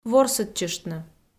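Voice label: "ворсӧдчыштны", Komi, female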